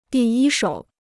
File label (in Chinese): "第一手", Chinese